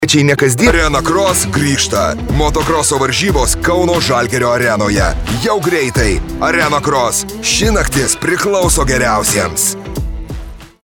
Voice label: male lithuanian commercial

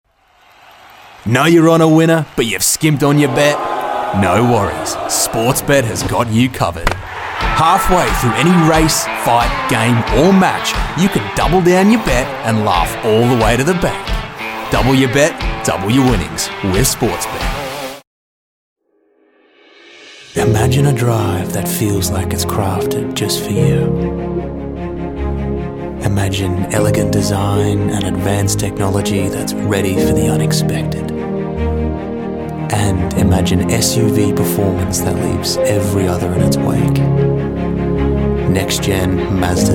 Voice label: male english australian commercial compilation

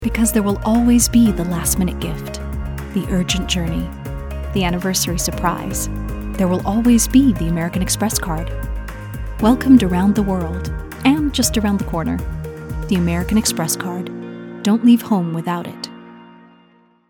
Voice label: female canadian canadian accent commercial; american express

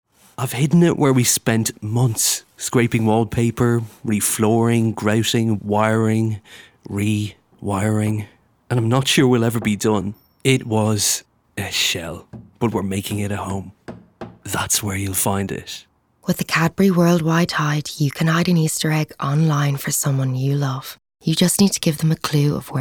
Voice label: male english irish commercial; irish southern

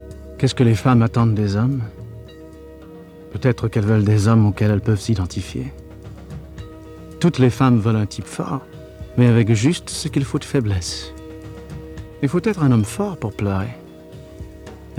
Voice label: male french canadian commercial

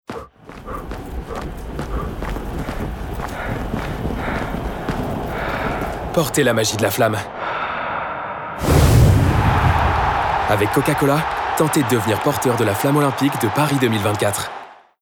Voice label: male french commercial; coca cola

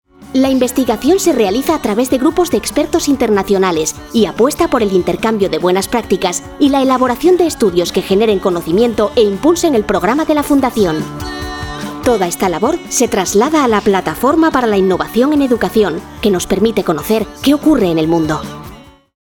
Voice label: female spanish castilian young adult corporate; devine voices

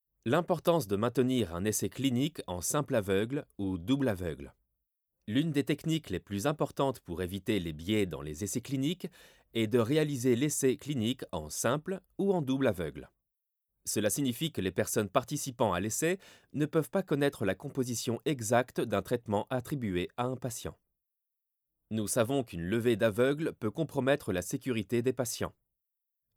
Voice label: male french e-learning; novartis

